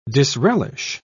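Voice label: "disrelish", British English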